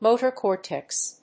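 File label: "Motor Cortex", English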